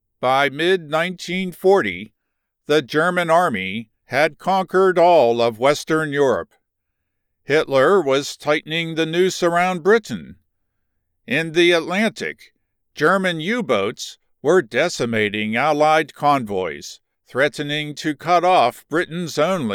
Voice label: Documentary gritty elearning